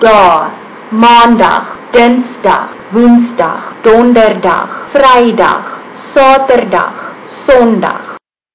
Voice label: afrikaans days